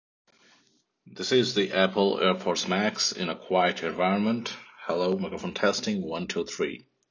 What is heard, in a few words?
quiet; airpods max